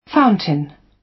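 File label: "fountain", British English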